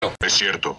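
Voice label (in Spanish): "es cierto", Spanish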